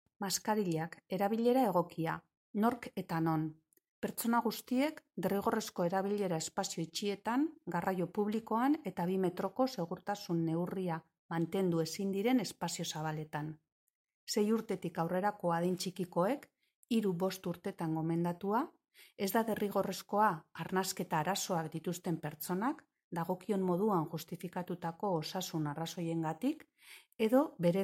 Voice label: eusk; Tejiendo Redes